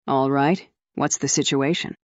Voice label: fem